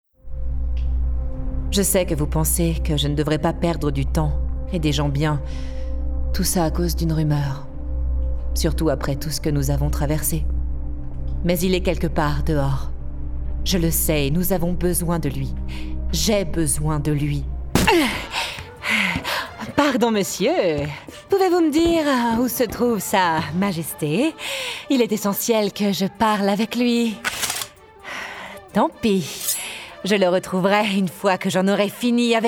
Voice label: Gaming French